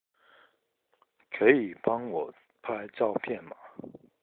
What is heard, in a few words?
Can You Help Take A Photo Mandarin